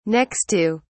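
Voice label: "next to", British English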